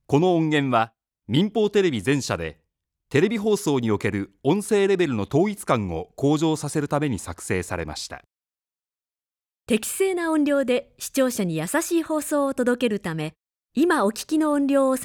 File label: narration minus20LKFS